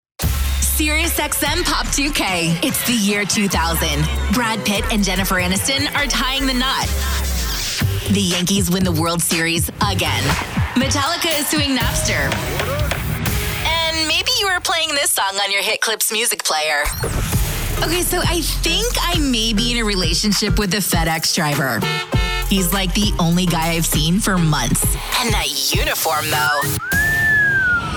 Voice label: radio women generic, radio imaging

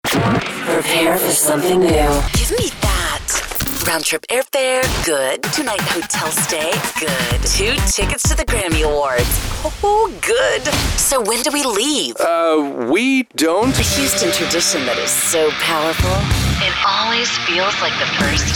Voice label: radio imaging female country

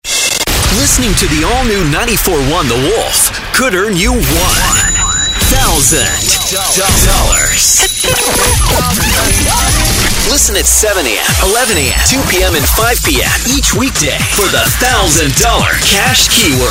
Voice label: radio country, radio imaging

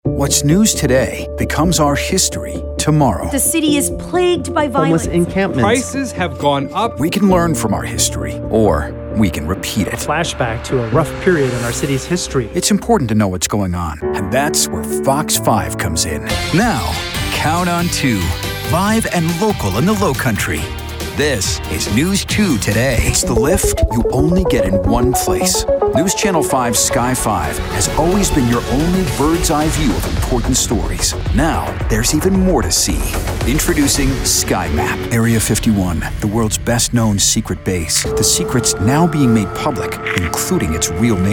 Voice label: tv affiliate men

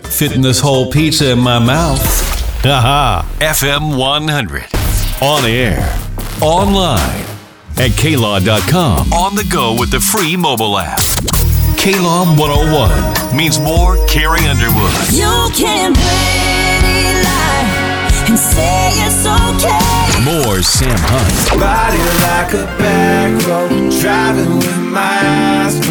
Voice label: radio men country, radio imaging

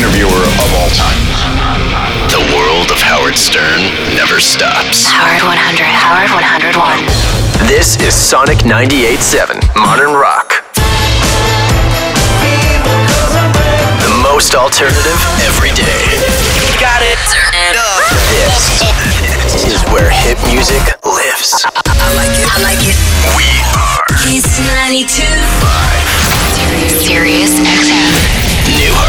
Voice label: radio imaging male

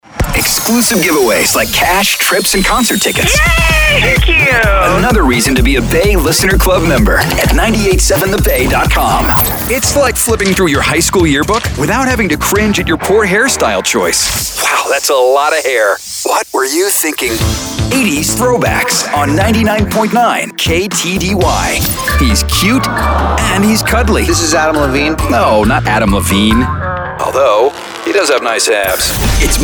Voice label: radio imaging male hot ac ac

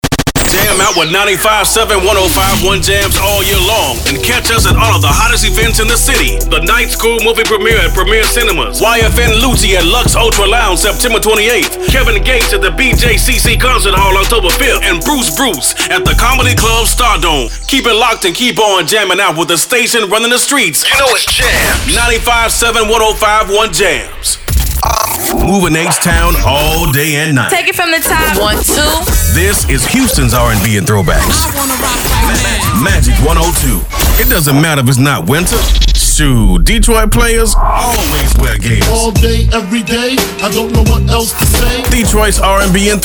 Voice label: radio men urban ac, radio imaging

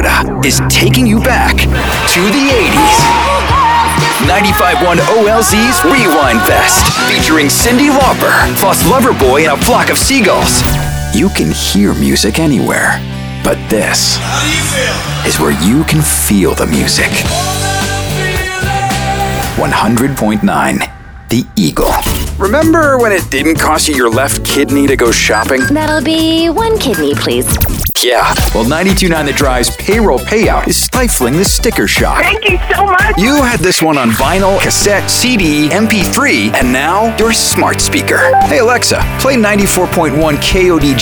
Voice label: radio men classic hits; radio imaging

